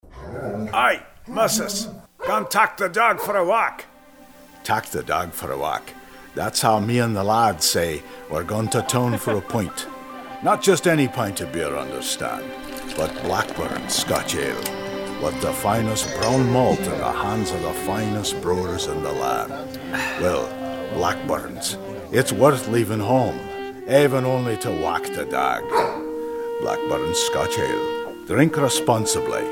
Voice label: Commercial Character Scottish Ale; voiceovers